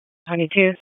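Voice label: Police District Dispatch, p25; wake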